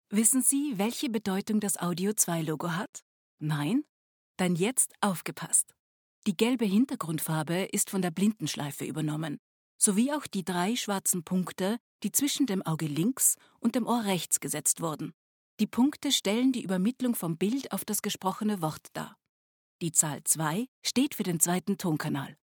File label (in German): Oesterreichisches Deutsch, sprechproben